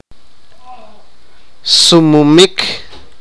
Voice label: sipakapense; run

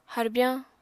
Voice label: "harbyañ", apatani

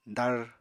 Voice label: "dar", Apatani

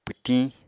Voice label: "pwtiñ", apatani

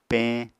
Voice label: "peñ", Apatani

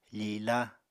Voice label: "fila", Apatani

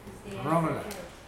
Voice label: burned, Mokilese

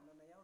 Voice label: "nanayau", Yawa; cold; unat

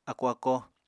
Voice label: "ákó-ákó", Apatani

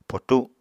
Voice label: "potu", apatani; Material Culture

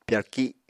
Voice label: "pyarki", apatani

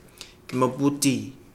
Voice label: rat, Kapingamarangi

